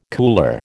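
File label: "cooler", American English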